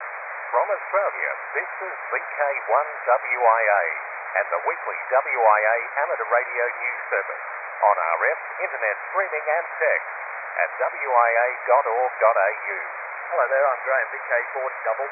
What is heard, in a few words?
news ssb 10 dB; wianews